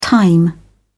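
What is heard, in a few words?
"thyme", British English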